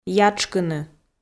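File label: "ятшкыны", Komi, female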